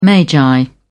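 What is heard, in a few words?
"magi", British English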